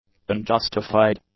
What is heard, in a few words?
"unjustified", English; adjectives